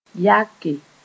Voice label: "yake", Moore

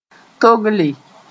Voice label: "togelle", moore

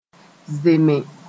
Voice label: "zeme", moore

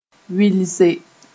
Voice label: "wɩlse", Moore

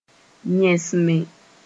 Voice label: "yẽsme", moore

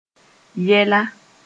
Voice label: "yɛla", Mooré; problems

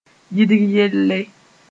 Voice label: "yidg yelle", mos-en; resolve

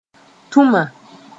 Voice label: "tũma", moore

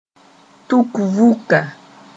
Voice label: "tuk vũka", moore

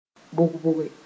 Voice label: "bʋg-bʋge", moore; softly

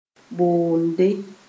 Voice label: "boonde", Mooré

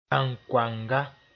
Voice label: "bãnkoanga", Mooré

